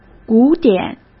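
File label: "古典", Mandarin; chinese-flashcards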